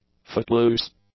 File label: "footloose", english; adjectives